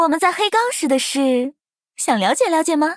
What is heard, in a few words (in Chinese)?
zh CN; FuLanKa; 明日方舟:芙兰卡